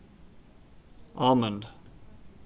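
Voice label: "almond", Canadian, male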